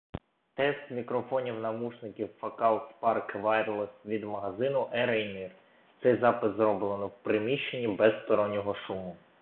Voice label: Focal Spark Wireless в приміщенні

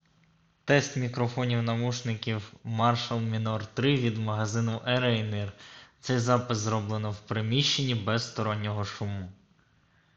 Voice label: Marshall Minor III в приміщенні

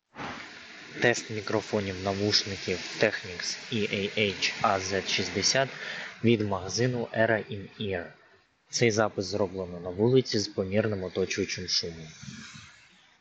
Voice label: Technics EAH AZ60 вулиця

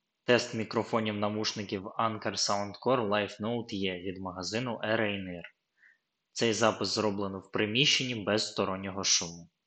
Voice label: Anker SoundCore Life Note E приміщення

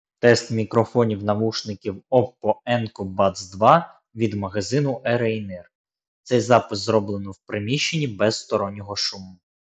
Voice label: OPPO Enco Buds 2 приміщення

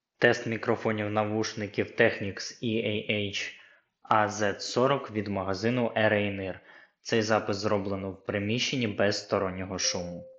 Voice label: Technics EAH AZ40 приміщення

